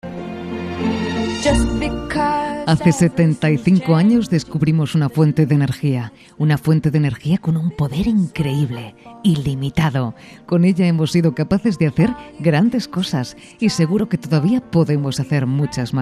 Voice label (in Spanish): anuncio, locutora; ONCE